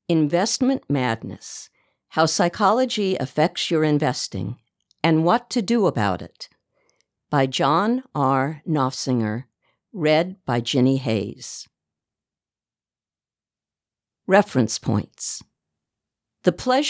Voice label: audiobook nonfiction financial